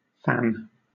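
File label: "fan", British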